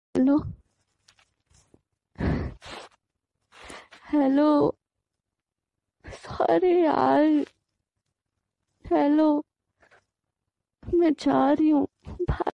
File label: Hello Hello Girl Serious Voice